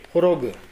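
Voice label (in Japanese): "horogu", 南山形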